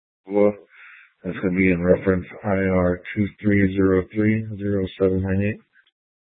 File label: Sheriffs Office, scanner